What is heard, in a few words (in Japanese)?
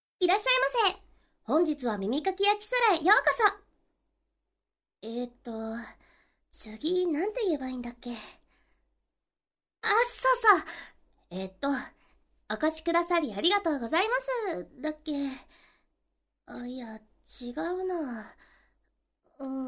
ボイスのみ; 始めての君